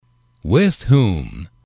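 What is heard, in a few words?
"with whom", English, male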